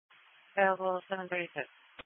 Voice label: EMS Dispatch